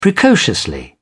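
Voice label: "precociously", British English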